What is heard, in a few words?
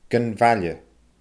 "gun mhaille", Scottish Gaelic